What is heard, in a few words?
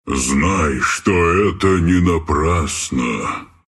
tango ru; Dota 2; Treant